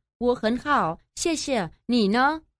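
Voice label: "wohenhao, xiexie, nine", Mandarin Chinese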